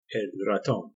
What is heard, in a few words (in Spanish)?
"el raton", espanol